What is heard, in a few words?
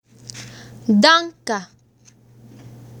german line